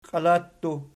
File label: "qialattu", Archi